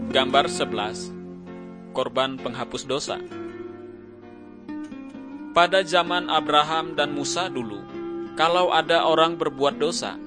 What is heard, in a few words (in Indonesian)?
kabar baik good news bahasa indonesia